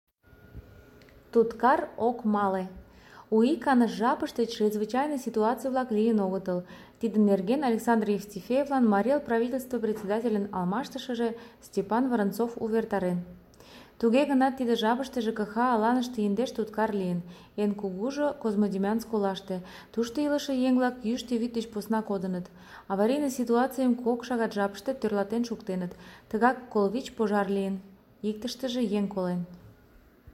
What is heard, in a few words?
Tutkar ok male